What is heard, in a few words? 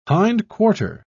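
"hindquarter", British English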